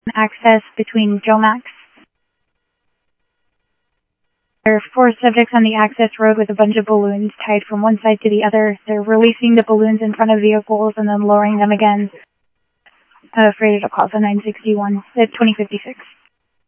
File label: Phoenix PD, scanner audio